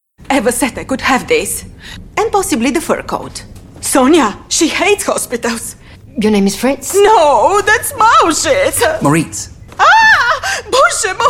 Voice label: Dubbing accented English; Netflix; Holiday Secrets